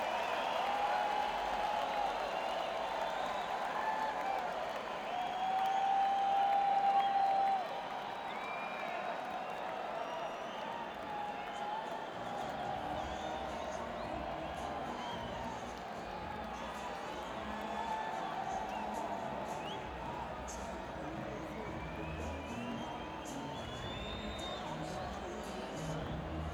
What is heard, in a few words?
Banter; Broomfield, CO; 1stBank Center